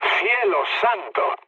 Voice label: trick, mood low, speech; War Thunder

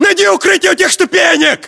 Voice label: order cover steps, ru; localized english

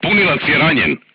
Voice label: voice message charger wounded, serbian crew tanks

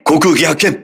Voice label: voice message air alert, crew ships japanese

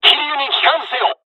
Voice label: evac, dialogs japanese; War Thunder; midway

